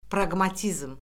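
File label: "praqmatizm", Azerbaijani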